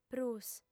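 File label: "prus", Sardinian, female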